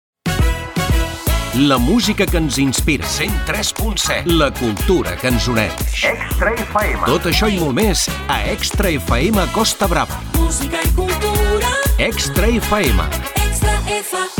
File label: catalan promos